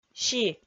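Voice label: "си", Komi, female; kpv; audio dict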